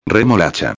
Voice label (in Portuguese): "remolacha", espanhol